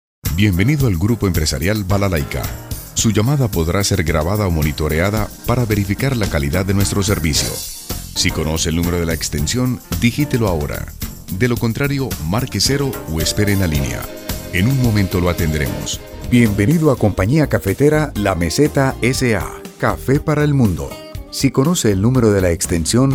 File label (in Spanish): Audio Telefónico IVR